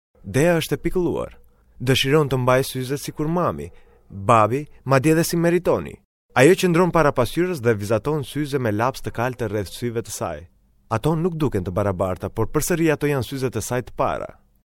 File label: albanian m narration; voice demo; GoPhrazy